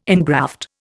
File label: "ingraft", Indian